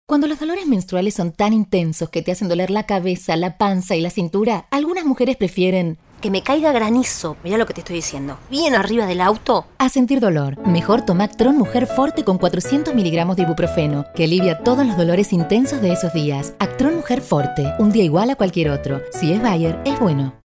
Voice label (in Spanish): mujer; hablados; locucion